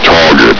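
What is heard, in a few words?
"target" male soldier; hl1; Source engine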